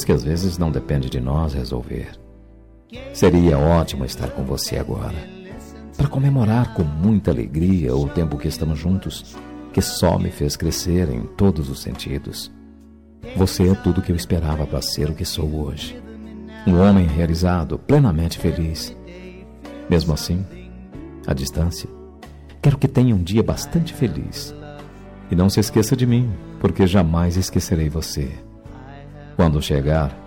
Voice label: dia dos namorados distante, voz masculina

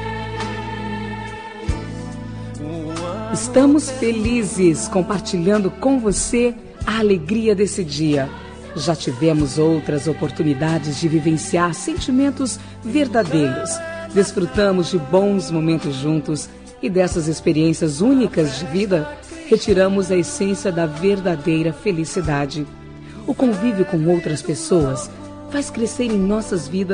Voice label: natal especial, Voz Feminina; Natal Pessoa Especial

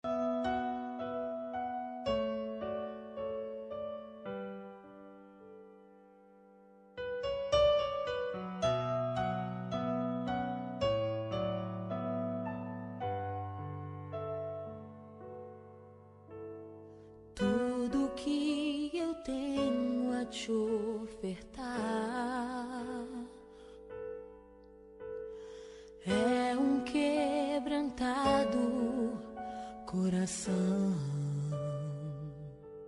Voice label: neutra gospel, Aniversário Pessoa Especial Gospel – Voz Masculina

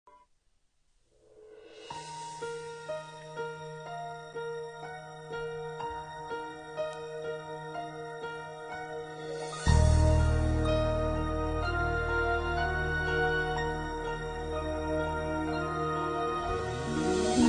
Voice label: Aniversário de Casamento Romântica – Voz Feminina; telemensagem

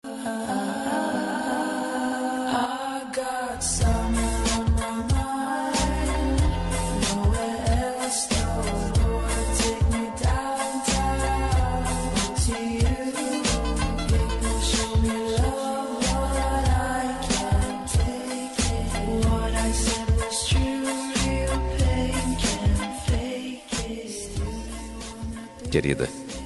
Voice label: Telemensagem Romântica, Esposa, Voz Masculina

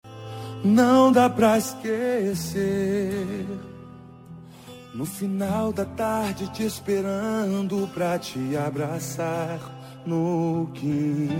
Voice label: masc, Dia dos Pais – Voz Masculina

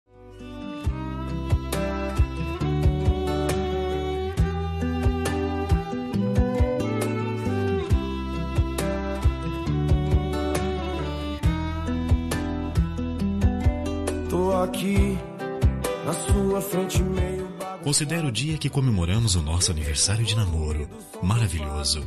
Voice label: masc; niver namoror

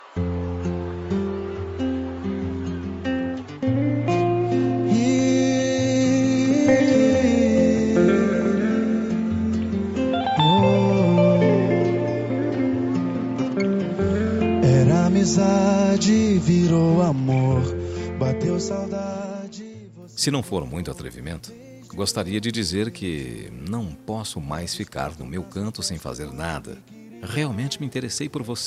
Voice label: Voz Masculina; Telemensagem de Conquista – Voz Masculina

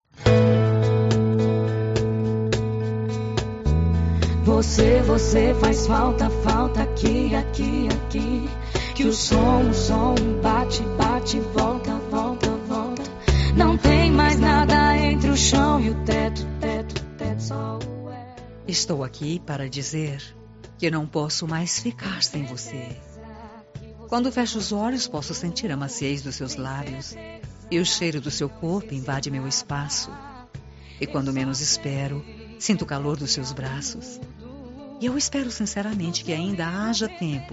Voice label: reconciliação feminina, Telemensagem de Reconciliação – Voz Feminina

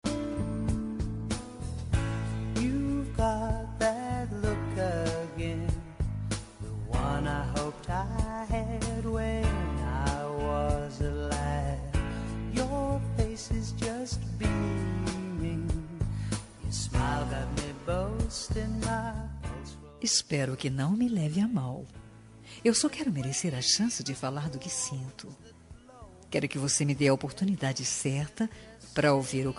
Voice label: conquista fem

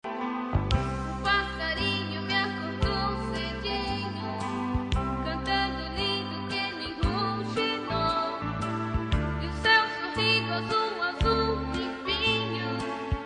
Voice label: dia dos pais voz infantil feminina

